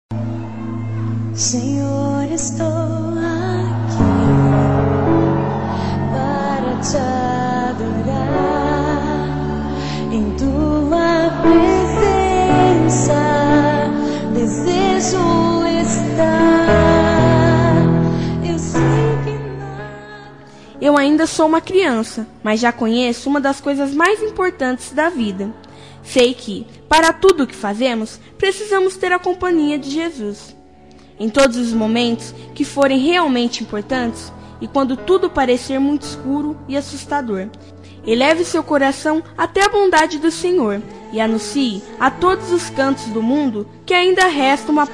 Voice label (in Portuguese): Mae Evangelica Infantil Masculino, telemensagem; telemensagem dia das maes evangelica familia